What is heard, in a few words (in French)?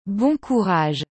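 "Bon courage", French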